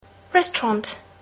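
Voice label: "restaurant", English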